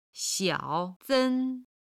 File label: "xiao sen", chinese